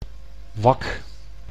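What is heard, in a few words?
"wak", Dutch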